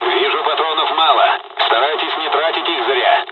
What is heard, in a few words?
dialog, dialogs russian; War Thunder